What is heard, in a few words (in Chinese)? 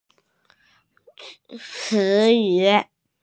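"ci2yu3", Mandarin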